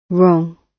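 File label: "wrong", British English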